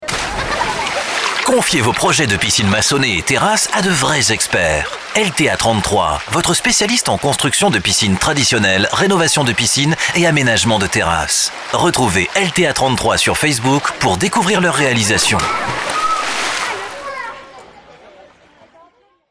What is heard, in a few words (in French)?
Spot Publicitaire Voix Cinéma